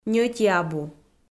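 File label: "ньӧти абу", Komi, female; audio dict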